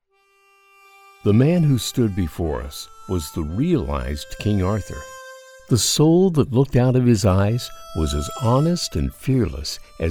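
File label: Narration, Professional Male Voice Actor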